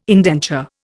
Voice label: "indenture", Indian

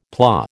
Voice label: "PLA", American

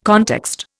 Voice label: "context", Indian

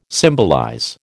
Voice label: "symbolize", American

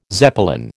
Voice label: "Zeppelin", American

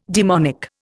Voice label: "daemonic", Indian

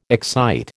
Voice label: "excite", American

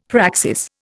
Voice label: "praxis", Indian; Dictionary

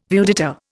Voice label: "viewdata", Indian

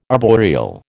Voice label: "arboreal", American

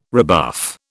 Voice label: "rebuff", British

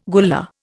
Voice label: "Gullah", Indian

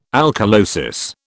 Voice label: "alkalosis", British

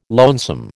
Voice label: "lonesome", American

